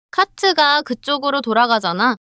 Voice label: neutral

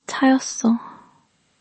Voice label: sadness

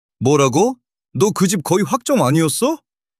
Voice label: anger

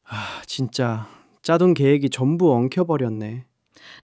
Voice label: sadness

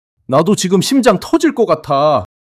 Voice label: fluster

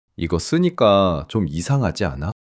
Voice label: shy

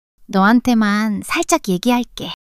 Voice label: shy

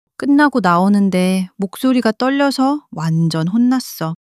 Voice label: sadness

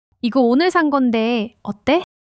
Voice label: joy

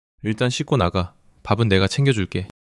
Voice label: neutral

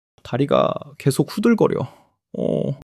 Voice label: fluster

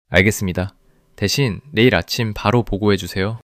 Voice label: neutral

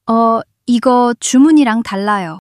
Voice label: fluster

